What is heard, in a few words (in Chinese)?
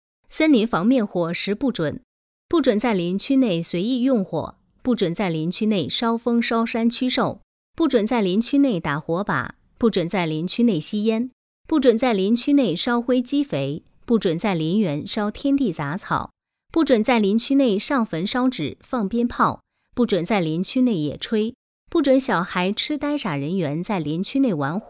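女严肃播报, 配音; 森林防灭火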